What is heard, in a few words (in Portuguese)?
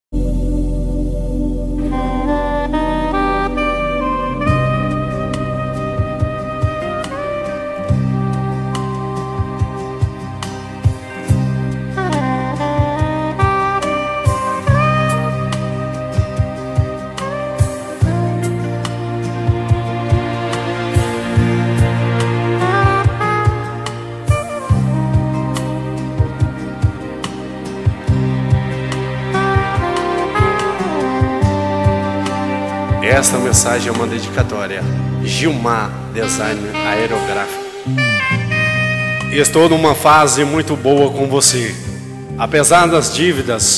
locutor